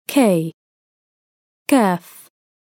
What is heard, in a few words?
"kaaf", Arabic